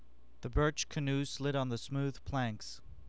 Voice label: short wb voice; spandsp